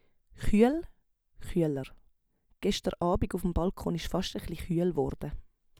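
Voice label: "chueel", Swiss German vocabulary; Wortschatz Kapitel 5; Kapitel 5 Zusatzwortschatz 1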